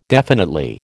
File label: "definitely", American